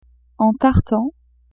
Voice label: "en tartan", French; vetements

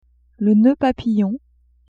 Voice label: "noeud papillon", French; vetements

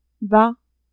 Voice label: "bas", French; adverbs

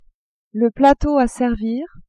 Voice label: "plateau a servir", French; cuisine

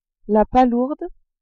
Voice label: "palourde", french

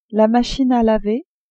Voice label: "machine a laver", French; cuisine

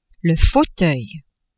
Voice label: "fauteuil", French

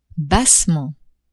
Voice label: "bassement", French; adverbs

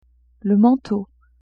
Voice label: "manteau", French; vetements

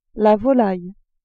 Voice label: "volaille", french

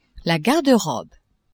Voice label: "garde-robe", French; maison

